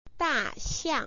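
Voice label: "da xiang", Mandarin Chinese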